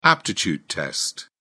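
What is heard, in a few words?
"aptitude test", British English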